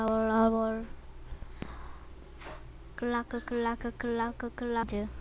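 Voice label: "clock", spoken word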